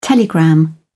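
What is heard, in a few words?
"telegram", British English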